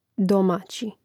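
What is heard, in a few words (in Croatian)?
"domaći", Hrvatski; mreznik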